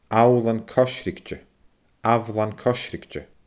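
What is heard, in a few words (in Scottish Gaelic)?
"abhlan coisrigte", Scottish Gaelic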